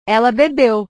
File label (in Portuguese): "ela bebeu", portugues